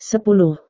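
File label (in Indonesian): "sepuluh", Indonesian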